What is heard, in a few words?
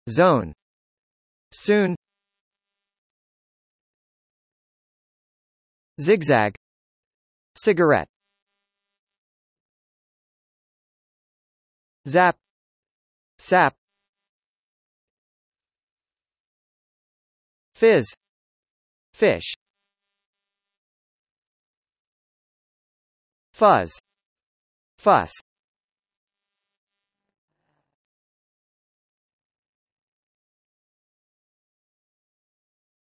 z US, Englisch richtig aussprechen; der buchstabe z